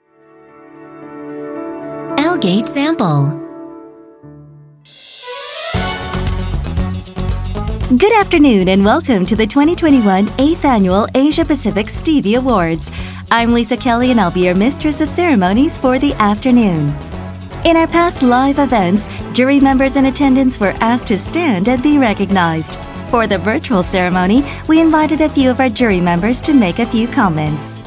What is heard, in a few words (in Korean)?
영어 여 앵커톤